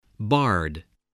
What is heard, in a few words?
"bard", English